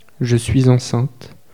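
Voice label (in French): "je suis enceinte" French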